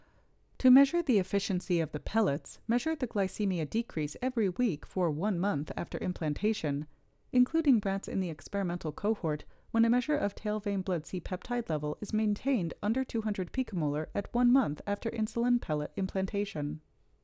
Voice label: Voiceover